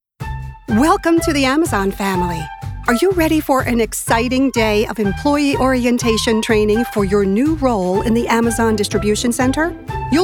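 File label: US English e-learning, kvinne; engelsk-us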